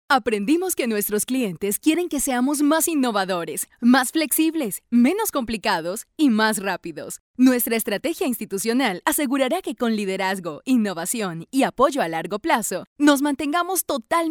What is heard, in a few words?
Latin Spanish female corporate